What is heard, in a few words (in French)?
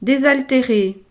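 "desalterer", French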